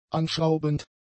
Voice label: "anschraubend", German; adjectives